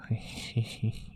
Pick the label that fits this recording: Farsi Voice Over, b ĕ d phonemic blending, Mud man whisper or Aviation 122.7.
Mud man whisper